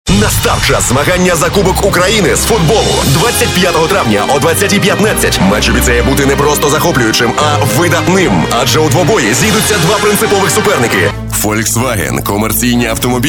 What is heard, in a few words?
ukrainian m commercial